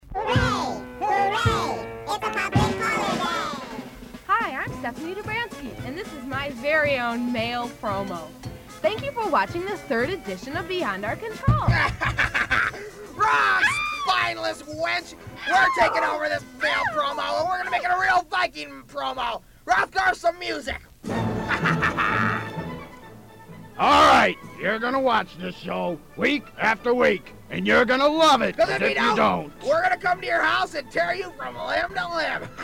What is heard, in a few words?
mail promos